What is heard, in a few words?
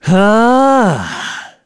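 Vox Casting, korean; Esker; kingsraid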